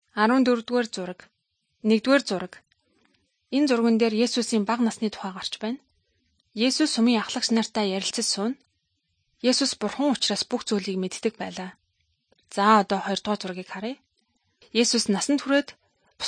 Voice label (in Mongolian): good news mongolian